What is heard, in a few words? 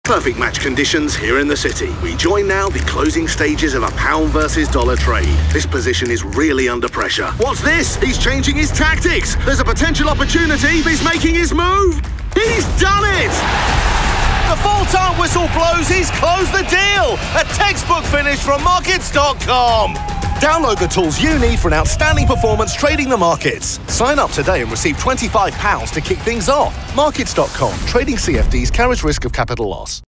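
English UK, Werbung, Lebendig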